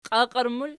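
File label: "qiaqiera" plural, Archi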